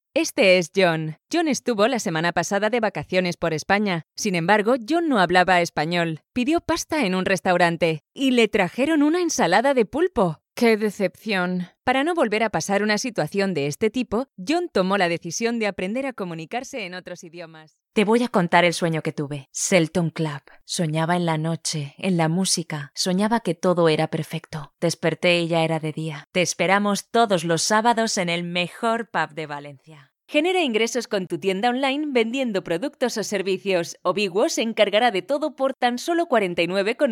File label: Castilian